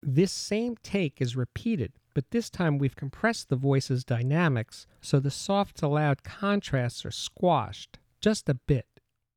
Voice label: Voice Dynamic Range Mildly Compressed Announcement; The Ultimate Headphone Demonstration Disc ( 24Bit192kHz ); Chesky Record